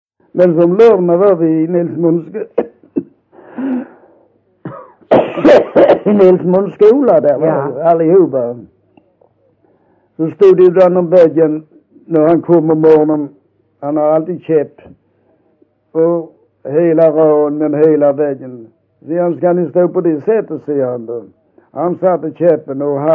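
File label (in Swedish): Vollsjö Skåne, dialekter; Folkminnen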